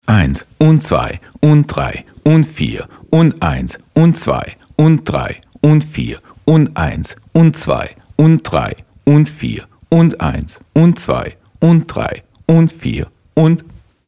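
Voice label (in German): 4 4tel Swingachtel, gesprochene Zählstränge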